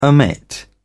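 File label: "omit", English, British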